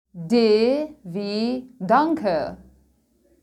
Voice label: german alphabet